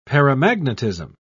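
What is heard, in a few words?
"paramagnetism", British English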